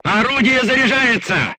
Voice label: voice message reloading, crew tanks russian